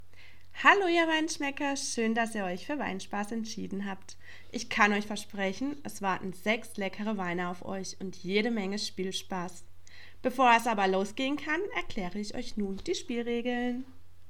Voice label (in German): Begruessung Sprachmemo